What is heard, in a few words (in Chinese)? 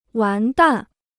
"完蛋", Chinese